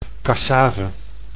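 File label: "cassave", Dutch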